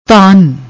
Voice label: "dhaan", Punjabi